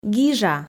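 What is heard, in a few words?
"гижа", Komi, female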